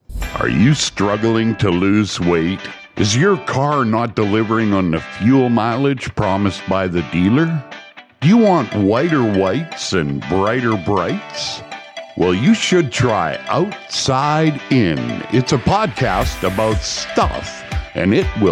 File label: spot